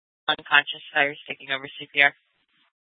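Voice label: Police Department North Dispatch, scanner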